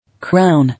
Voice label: "Crown", English